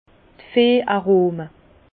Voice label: "veh arome", Bas Rhin; vin; arômes animales

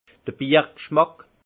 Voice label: "biergschmack", alsace; vin; goût de biere

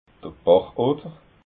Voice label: "bachotter", alsace

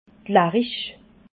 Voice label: "larich", alsace

